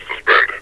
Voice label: "suspend", metrocop zombie; scmod

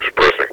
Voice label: suppressing, combine soldier

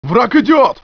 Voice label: alien; otis

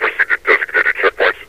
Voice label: proceed to checkpoints, metrocop zombie; scmod

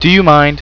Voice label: scientist busy; scientist2

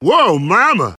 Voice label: cheer

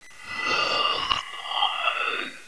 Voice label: lady alert

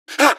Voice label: pain, npcs gasmask; scmod

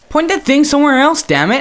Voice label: scared

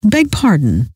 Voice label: beg pardon, scientist female

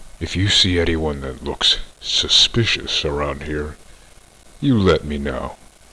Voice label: suspicious

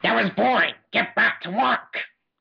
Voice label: boring, scientist

